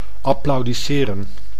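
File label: "applaudiseren", Dutch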